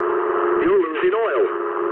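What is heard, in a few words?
damaged oil mood low, speech English; War Thunder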